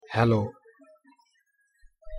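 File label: "হ্যালো", Bengali, pronunciation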